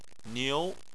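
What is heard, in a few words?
"niu" second tone, Chinese; Chinese Surnames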